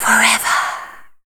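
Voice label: vocal female, Dance Vocals, spoken words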